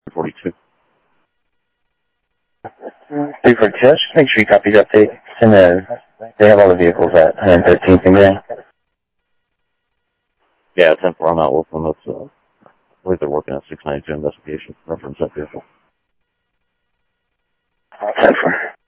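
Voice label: Sheriff West County, scanner audio; MCSO - WEST; ThompsonPeakScot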